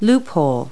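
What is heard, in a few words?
"loophole", English word; Multi-Lingual Talking Picture Dictionary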